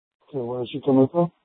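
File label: police dispatch, scanner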